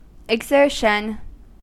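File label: "exertion", American English; pronunciations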